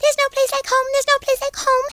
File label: "uh oh", Southern Belle; speech